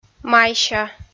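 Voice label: "майся" Komi, female; kpv audio dict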